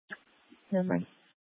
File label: Dispatch, scanner